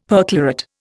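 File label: "perchlorate", Indian English; Dictionary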